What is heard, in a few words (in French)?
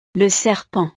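"le serpent", French; la serpiente; Les reptiles (los reptiles)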